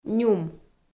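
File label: "нюм", Komi, female; kpv audio dict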